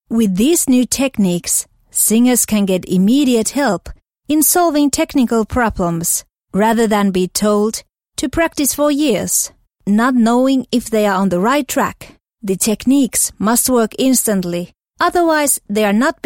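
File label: Finnish English female narration; accent of finland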